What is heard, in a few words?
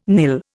"nil", Indian